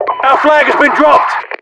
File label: our flag drop, UK; Voiceovers